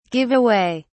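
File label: "give away", English, British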